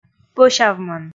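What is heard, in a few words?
"пӧсявмӧн", Komi, female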